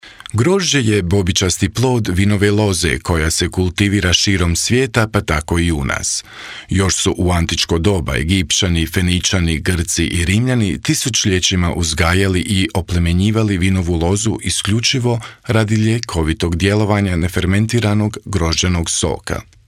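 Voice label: Croatian, commentary, Neutral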